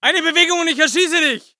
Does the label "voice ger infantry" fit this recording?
Yes